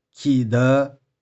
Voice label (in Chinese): "khi2deu5", 玉環